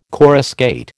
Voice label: "coruscate", American